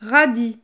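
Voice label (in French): "radis", French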